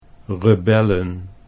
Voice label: "rebellen", Dutch

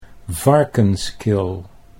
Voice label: "Varkenskill", Dutch

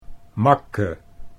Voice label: "makke", Dutch

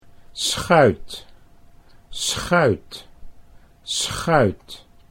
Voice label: "schuit", Dutch

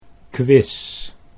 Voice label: "kwis", Dutch

